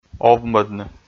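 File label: "овмӧдны", Komi, male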